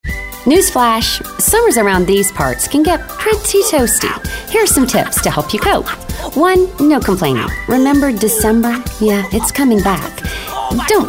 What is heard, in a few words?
playful